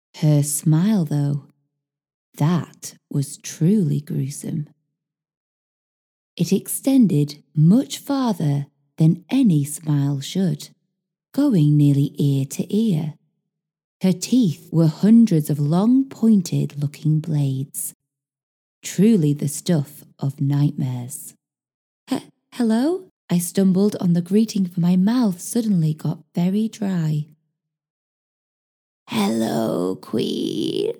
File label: AudioBook